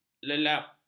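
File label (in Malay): "lelap", Malay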